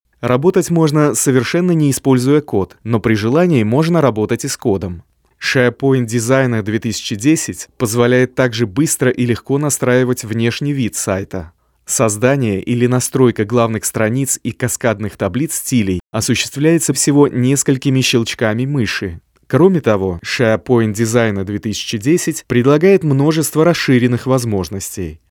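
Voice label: Russian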